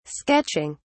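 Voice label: "sketching", British English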